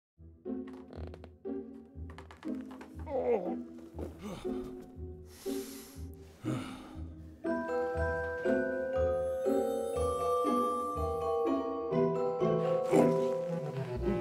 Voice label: xmas ad Voice over; wayfair